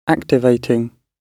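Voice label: "activating", British English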